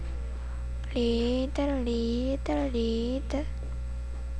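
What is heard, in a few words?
"lead", spoken word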